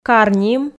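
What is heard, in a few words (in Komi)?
"кар ним", Komi, female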